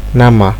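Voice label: "nama", Nigerian Pidgin, male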